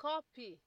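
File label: "copy", Nigerian Pidgin, female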